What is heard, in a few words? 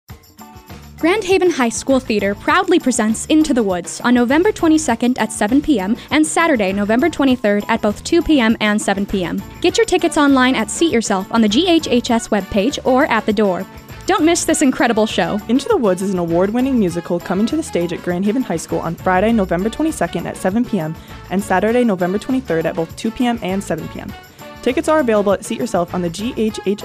commercial; into the woods